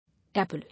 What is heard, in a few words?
"Apple", English